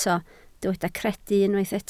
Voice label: "credu", Welsh

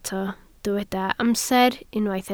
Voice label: "amser", Welsh

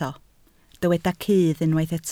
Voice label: "cudd", Welsh

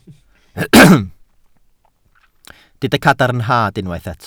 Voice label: "cadarnhad", Welsh

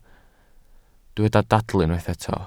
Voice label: "dadl" Welsh

